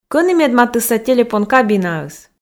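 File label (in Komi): кӧні медматысса телефон кабинаыс, Komi, female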